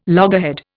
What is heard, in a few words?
"loggerhead", Indian